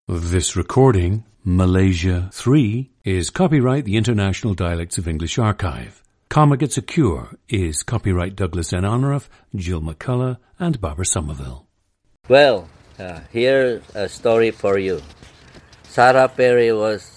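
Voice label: malaysia, International Dialects of English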